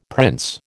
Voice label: "prince", American